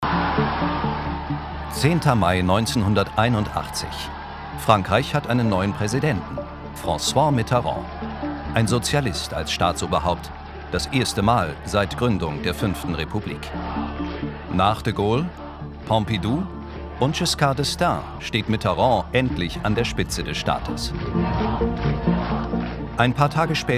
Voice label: Dokumentation Kommentar; Frankreich; LOFT VOICES

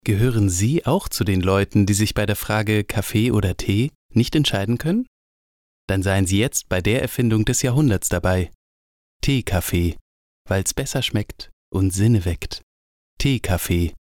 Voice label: Werbung Fake Teekaffee

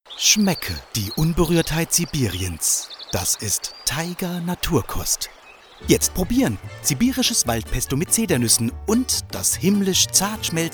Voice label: Hörfunk Spot

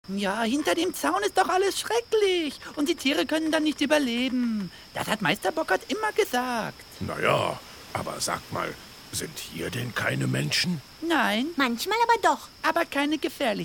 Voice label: hoerspiel; biberjunge; das wilde pack im verbotenen wald; cocomico records gmbh

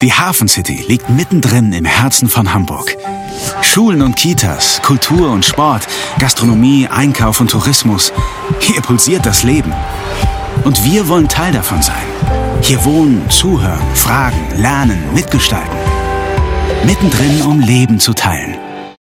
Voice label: euphorisch, German; Mittendrin, um Leben zu teilen

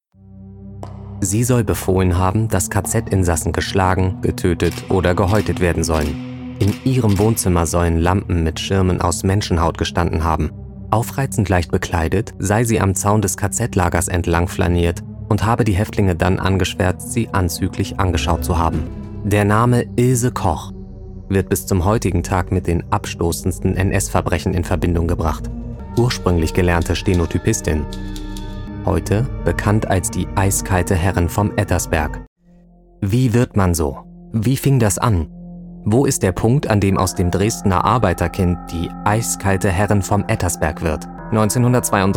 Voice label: TV Doku; Nationalsozialismus; LOFT VOICES

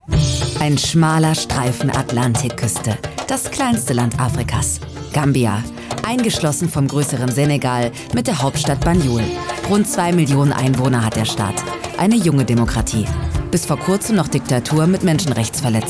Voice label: Reportage

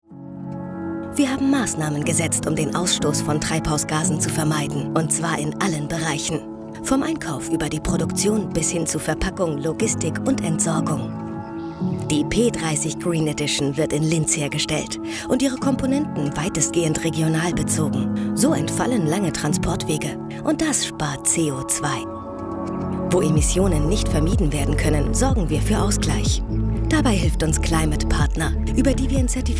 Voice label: Produktfilm; KeContact

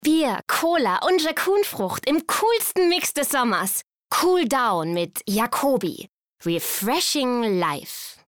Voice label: Werbung; LOFT VOICES

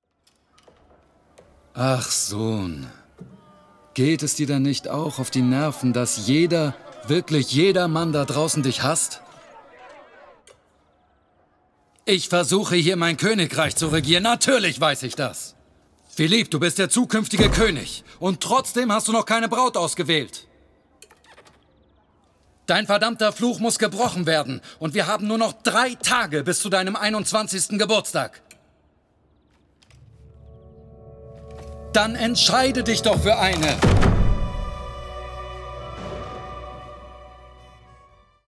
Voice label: Synchron Animation streng

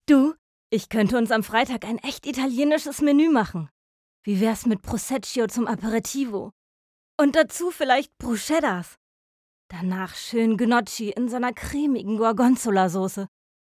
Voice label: Funkspot; LOFT VOICES

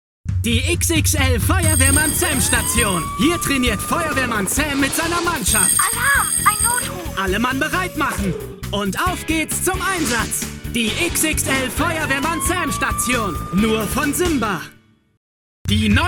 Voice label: TV Spots; Feuerwehrmann-Sam

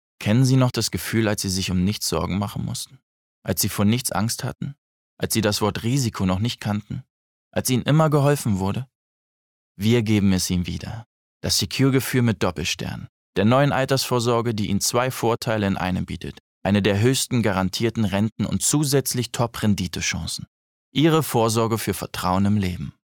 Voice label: werbung versicherung

